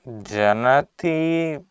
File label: "janati", Sanskrit